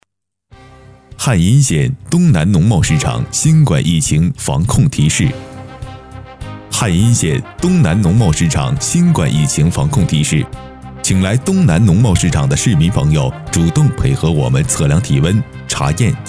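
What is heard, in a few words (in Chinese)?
疫情提示录制样音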